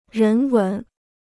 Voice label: "人文", Chinese